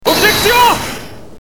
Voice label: "objection", french